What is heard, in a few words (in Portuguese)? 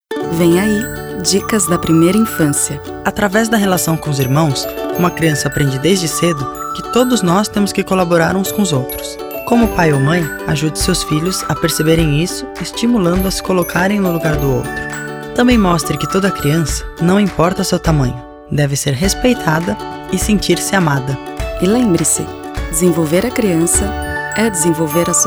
Spot; FMCV Irmãos